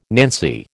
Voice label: "nancy", American